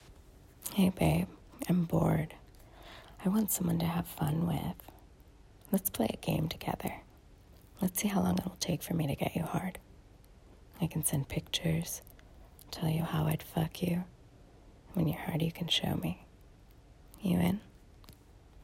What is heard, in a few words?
voice memo